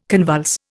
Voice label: "convulse", Indian